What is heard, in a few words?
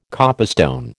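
"copestone", American English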